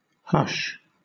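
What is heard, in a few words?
"hush", British English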